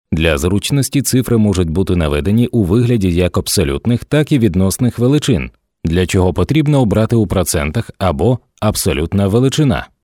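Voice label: Ukrainian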